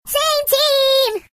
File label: Same team, Singer; hedgewars